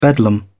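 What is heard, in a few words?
"bedlam", English, British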